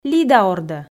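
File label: "лида ордӧ", Komi, female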